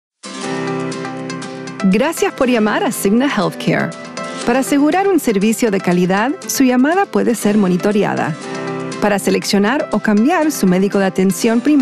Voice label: Spanish; Cigna